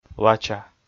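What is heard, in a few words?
"лача", Komi, male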